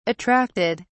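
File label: "attracted", British English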